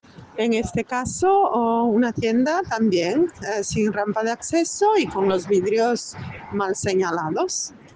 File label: Voice message